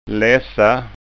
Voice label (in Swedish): "lassa", skanskaord